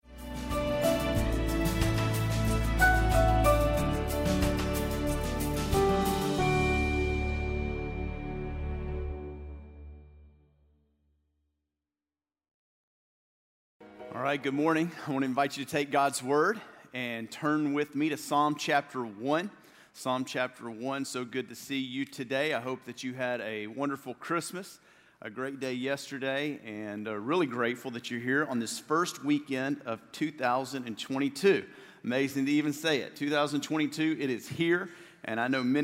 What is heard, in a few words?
Sermon English